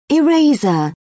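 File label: "eraser", British English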